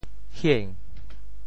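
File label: "hieng2", Teochew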